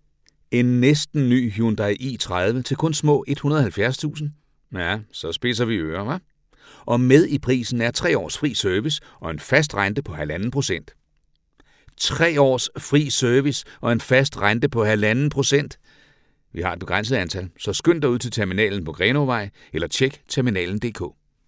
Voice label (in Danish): reklame